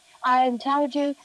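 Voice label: voice acting, es, female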